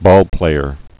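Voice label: "ballplayer", spoken word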